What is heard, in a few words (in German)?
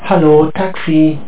hey taxi, speech german; SpaceTaxi